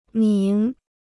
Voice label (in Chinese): "名", Chinese